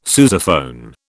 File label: "sousaphone", British